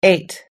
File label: "eight", us-english